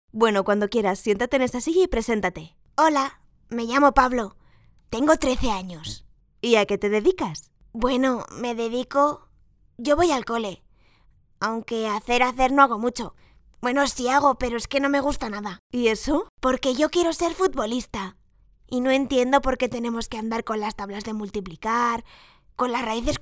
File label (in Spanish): Voz niño